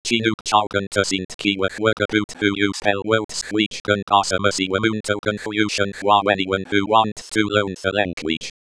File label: Corrupted English